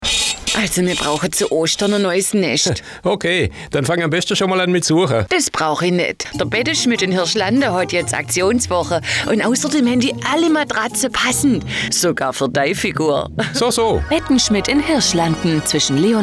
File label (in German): Dialekt Schwaebisch